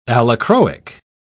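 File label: "allochroic", British English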